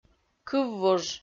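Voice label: "кыввуж" Komi, female; kpv audio dict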